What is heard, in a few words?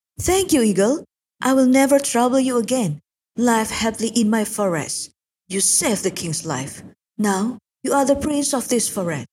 CHARACTER ENG